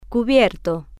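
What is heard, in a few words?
"cubierto" Spanish, female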